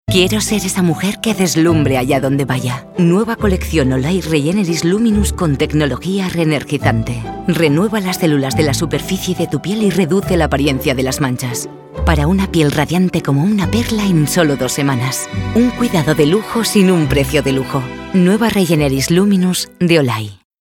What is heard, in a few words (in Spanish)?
Femenino